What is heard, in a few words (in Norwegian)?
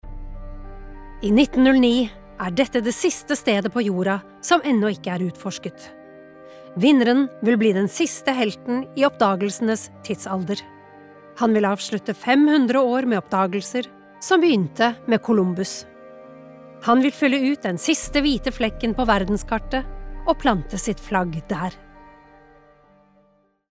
dokumentar, norsk, kvinne